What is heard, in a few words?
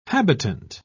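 "habitant", British English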